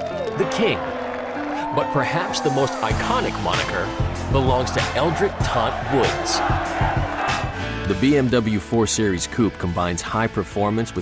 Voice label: long form narration